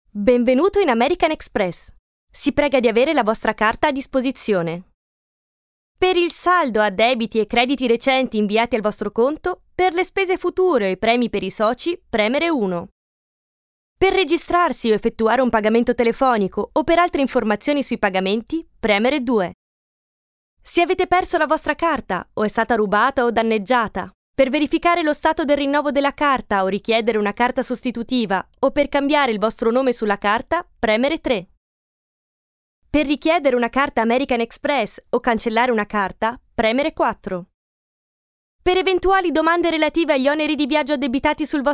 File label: Italiano IVR